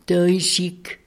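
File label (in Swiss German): "tàuisig", Alsatian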